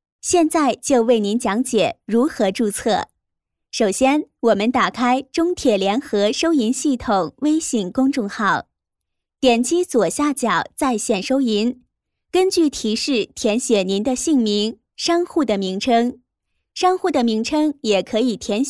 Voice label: 女 PPT多媒体 产品演示 注册解说

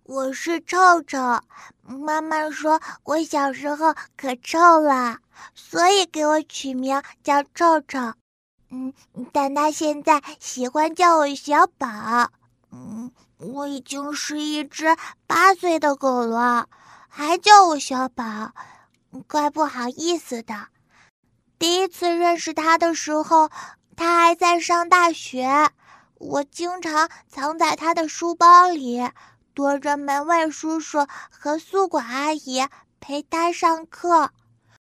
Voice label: 女-女童声3岁; 《狗子妈》

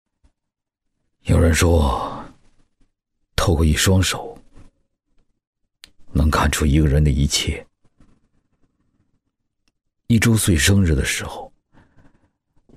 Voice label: 男 自述旁白 低沉 讲述感; 高端内涵; 一双手

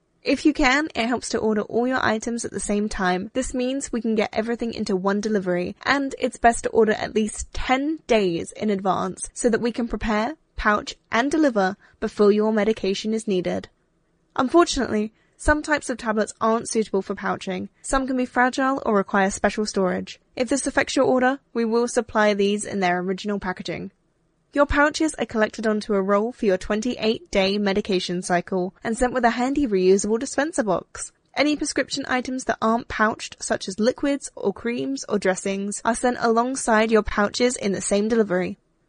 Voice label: 英式女声

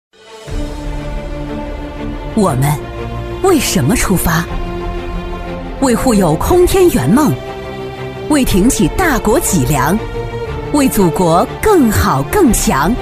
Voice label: 女 专题片 大气卓然; 沉稳大气; 中国航空二院

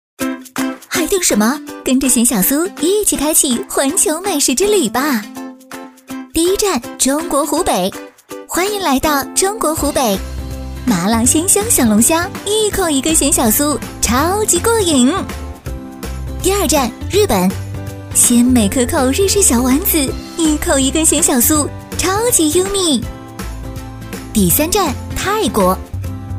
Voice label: 女 飞碟MG 俏皮活泼; 徐福记

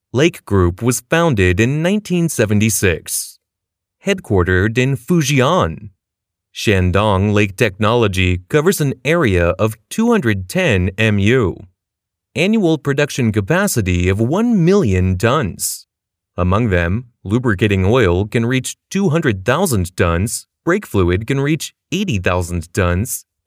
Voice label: 美式男声

